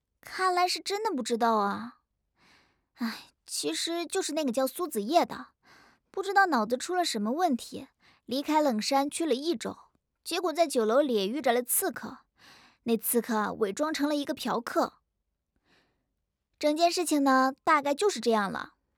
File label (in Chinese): 少女